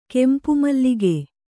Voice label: "ಕೆಂಪುಮಲ್ಲಿಗೆ", Kannada; kempu mallige; noun; forget-me-not China creeper.